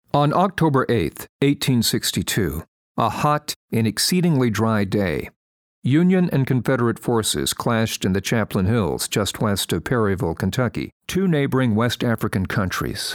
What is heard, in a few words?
Documentary